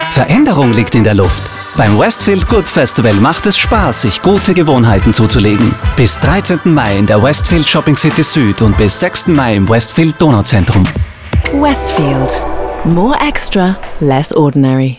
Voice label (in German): Radiospot; Westfield